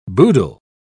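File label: "boodle", British English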